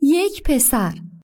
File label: a boy